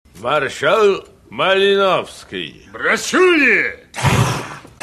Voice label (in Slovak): sms hlásky cz